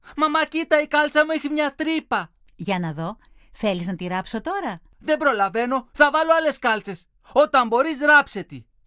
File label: dialog